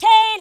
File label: jump, speech, Drill Sergeant